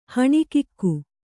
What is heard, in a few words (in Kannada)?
"ಹೊಣಕಿಗ", Kannada